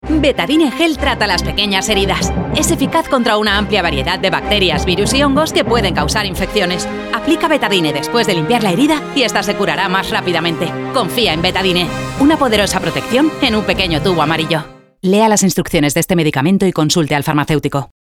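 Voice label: comercial, Spanish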